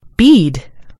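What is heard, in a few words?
"bead", American English; gcompris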